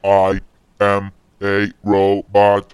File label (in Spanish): robot hablando